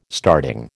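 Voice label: "starting", American English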